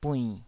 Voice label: "buinn3", taiwanese Syllables

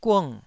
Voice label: "gung4", Taiwanese syllable

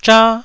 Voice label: "zha1", Taiwanese

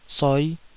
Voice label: "soi5", taiwanese Syllables

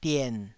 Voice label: "dien3", Taiwanese

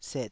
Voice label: "set3", taiwanese Syllables

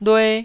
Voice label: "lue1", Taiwanese syllable

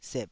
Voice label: "sep3", taiwanese

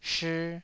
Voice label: "shii2", taiwanese Syllables

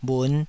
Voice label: "bhun5", taiwanese Syllables

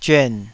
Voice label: "zyuan3", taiwanese

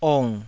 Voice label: "ong3", Taiwanese

